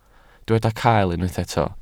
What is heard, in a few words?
"cael", Welsh